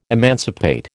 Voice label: "emancipate", American

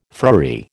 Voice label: "friary", American

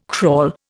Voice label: "crawl", Indian

